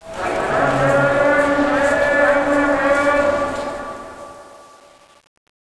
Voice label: arab call; de dust2b

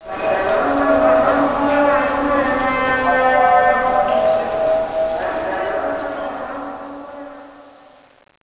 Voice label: arab call; de dust2b

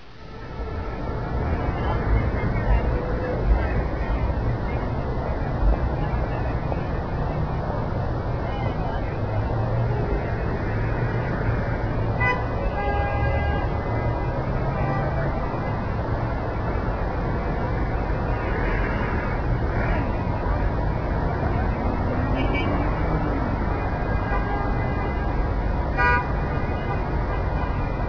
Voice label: tower traffic

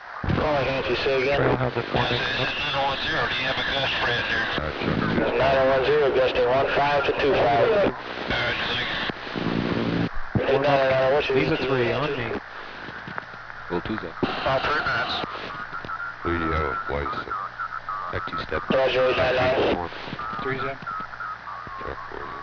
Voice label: radio pilot; de season css

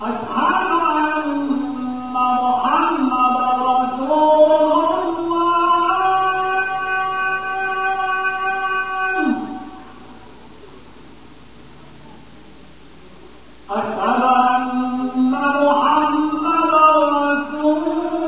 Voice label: arab prayer

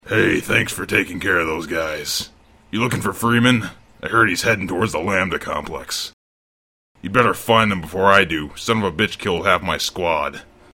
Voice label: grunt talk; svencoop; sectore

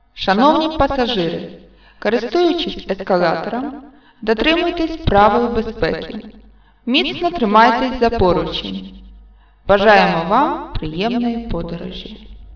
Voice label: announce

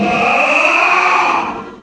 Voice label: man die

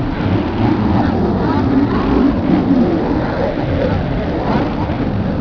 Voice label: alien voices

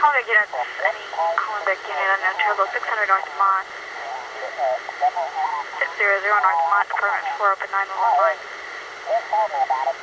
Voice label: police radio; miami

